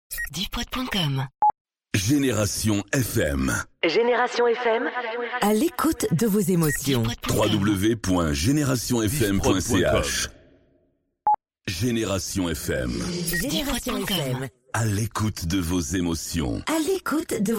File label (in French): Drops A cappellas, habillage